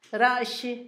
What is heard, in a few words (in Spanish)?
correcamino, Zapoteco, Asunción Ocotlan; Animales; INALI; Haspelmath